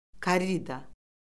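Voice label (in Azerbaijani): "korrida", Azerbaijani; Orfoqrafiya Lüğəti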